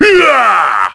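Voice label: Vox Skill, Korean; kingsraid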